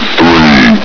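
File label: "three", male; hl2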